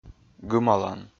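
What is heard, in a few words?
"гымалан", Komi-Zyrian, male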